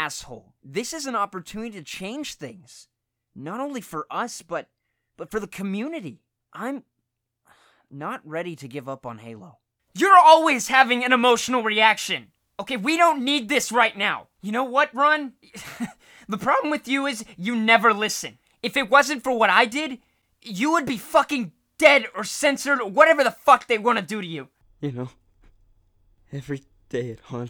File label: Character